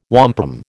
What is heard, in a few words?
"wampum", American